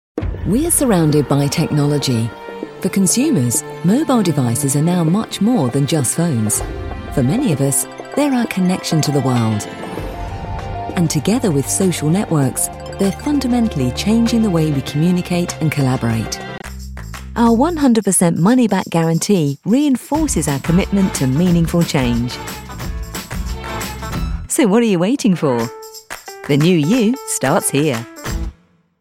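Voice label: English, female, corporate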